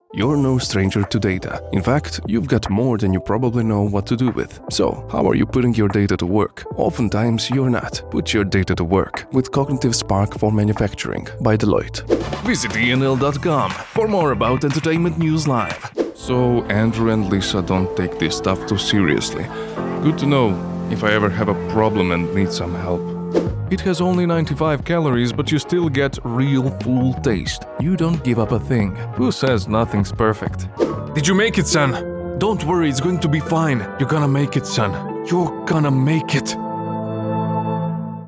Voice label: croatian voiceover natural

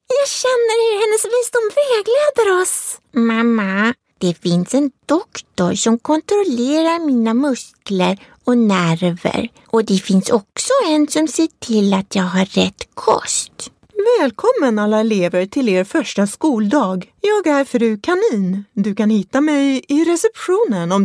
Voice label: swedish character

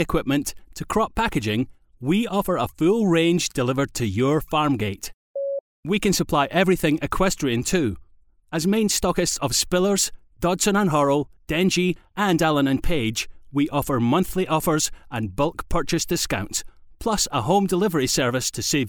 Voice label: on hold

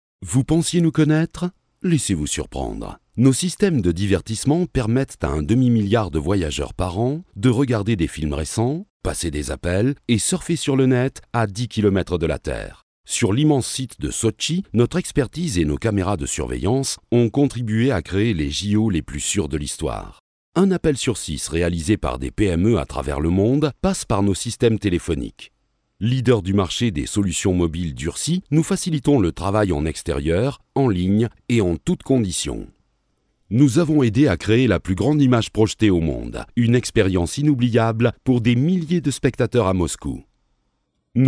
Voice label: male french corporate

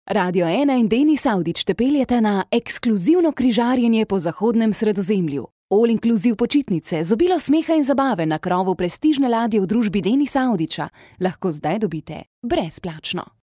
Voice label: slovenian

